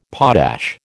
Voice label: "potash", American

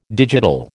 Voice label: "digital", American

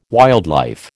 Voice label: "wildlife", American

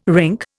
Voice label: "rink", Indian

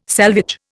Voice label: "selvage", Indian

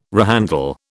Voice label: "rehandle", British

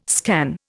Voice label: "scan", Indian

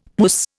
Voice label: "puss", Indian; Dictionary

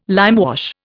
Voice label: "limewash", Indian

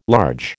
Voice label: "large", American

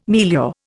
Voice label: "milieu", Indian

